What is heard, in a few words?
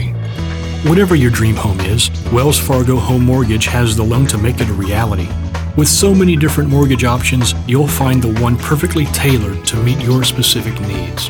Upbeat real